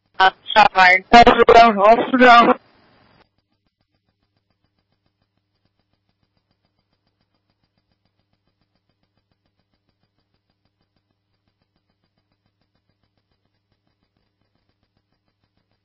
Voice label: Police Department Radio Traffic For Officer Involved Shooting; Mount Holly